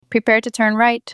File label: Female Prepare Turn Right